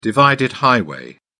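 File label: "divided highway", British English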